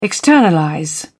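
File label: "externalize", British English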